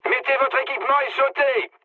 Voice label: bailout mood low, speech fr; War Thunder

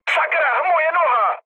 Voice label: damaged pilot, speech cze, mood low; War Thunder

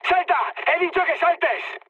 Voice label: bailout, mood high, speech; War Thunder

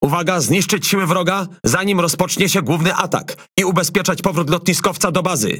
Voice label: ENEMYS BOSS, Languages PL; PVE; World Of Warships

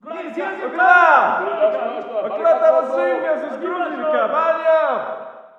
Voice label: walla rus mountain battle; Call of Duty Modern Warfare

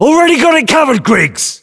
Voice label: voiceovers, US; english

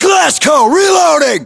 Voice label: US inform reloading, battlechatter, english

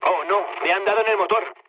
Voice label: damaged engine, mood low, speech; War Thunder

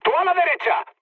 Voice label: right wing, mood med, speech; War Thunder

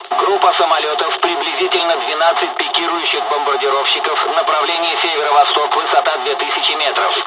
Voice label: dialog, dialogs russian; War Thunder

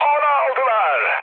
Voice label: aircraft destroyed, mood med, speech tur

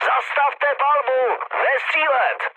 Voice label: player friendly fire, speech cze, mood low

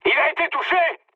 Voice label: aircraft destroyed mood high, speech fr

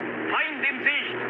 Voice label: enemy detected, mood high, speech ge; War Thunder

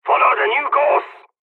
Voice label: mission fly, dialogs serbian; War Thunder

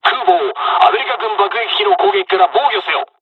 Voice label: dialogs japanese, defend; midway jpn; War Thunder

